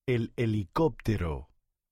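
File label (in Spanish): "el helicoptero", Spanish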